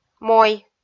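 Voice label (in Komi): "мой", Komi, female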